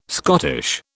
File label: "Scottish", British